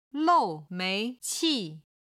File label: "lou4mei2qi4", chinese